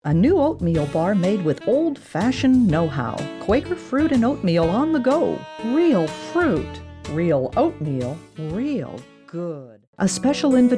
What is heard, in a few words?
commercial